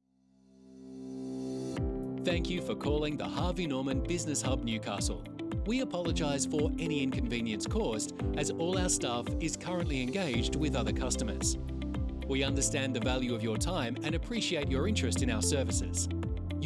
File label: Busy Male